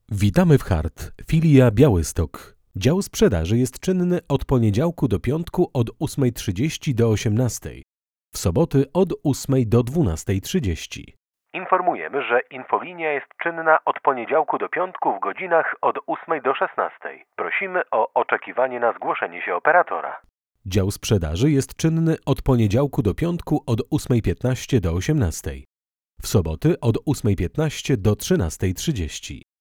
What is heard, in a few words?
ivr